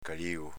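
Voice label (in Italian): "calìgo" Veneto dialect; s.m. nebbia, pioggerella finissima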